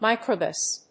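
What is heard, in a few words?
"microbus", English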